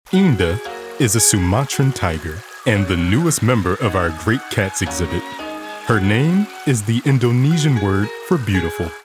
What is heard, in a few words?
narration voice over tiger, Documentary, Curator